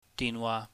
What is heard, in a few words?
"dihnwah", Cantonese